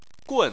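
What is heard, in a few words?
"gun", Chinese, fourth tone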